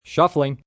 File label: Shuffling, Casino, gambling, male: 'Shuffling', (human voice speaking); Casinos; VoiceBox